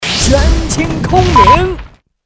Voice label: 男 角色; 周伯通; 拳倾空明

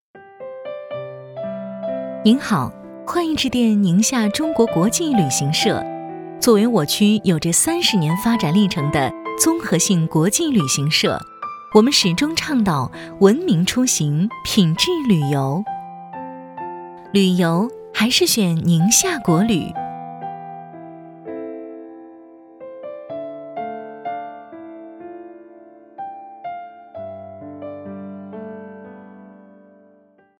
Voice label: 女, 彩铃

